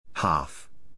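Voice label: "half", English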